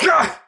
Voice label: Pain; Warframe; Tau; Dax; Knight